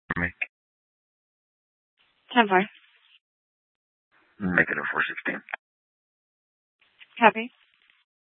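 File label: Police Department Dispatch; Mesa PD; 4 Superstition Dispatch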